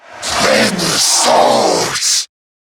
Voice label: Attack, en; Zariman Wraith

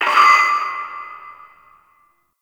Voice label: Announcement Start; Lustratus; Interrogation

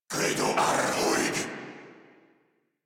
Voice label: Reload Corrupted Grineer Male

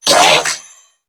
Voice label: Surprised Corpus Crewmen; New War; Narmer

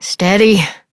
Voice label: Horse Calm Adult; Duviri

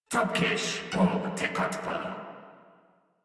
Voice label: Patrol Crewmen en

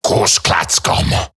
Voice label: Attack Eximus Grineer Male; Battle Barks

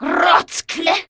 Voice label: Surprised, Female; Warframe; Grineer Female; Battle Barks

